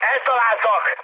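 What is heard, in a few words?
Hungarian aircraft damaged mood high, speech; War Thunder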